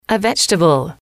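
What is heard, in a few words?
"a vegetable", female, English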